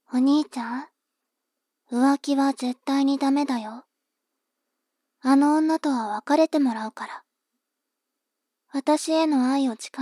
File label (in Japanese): 妹 台詞